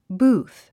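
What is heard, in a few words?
"booth", English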